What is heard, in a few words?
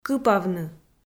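"кыпавны", Komi-Zyrian, female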